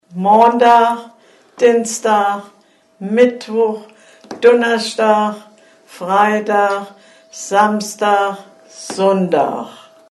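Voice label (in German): wochentage, O-Ton Hunsrück; Benzweiler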